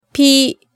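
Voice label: "pi", Japanese syllable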